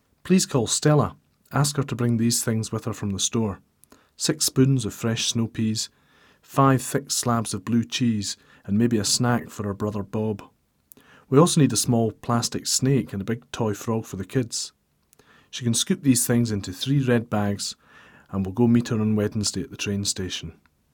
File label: Glasgow accent; Glasgow, Scotland; Accent Quiz